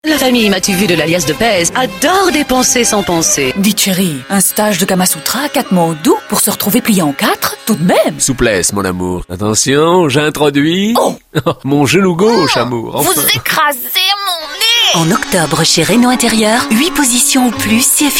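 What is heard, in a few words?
FR F commercial, French, female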